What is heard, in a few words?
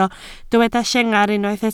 "llengar", Welsh